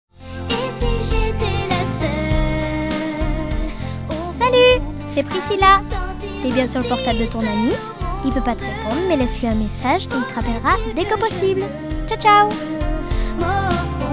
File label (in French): Messages pour repondeur telephonique